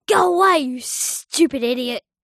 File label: human child boy 8 english says go away you stupid idiot